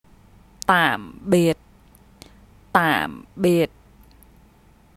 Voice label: Goodbye, vietnamese; Greetings